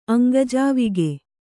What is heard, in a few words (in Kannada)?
"ಅಂಗಜಾವಿಗೆ", Kannada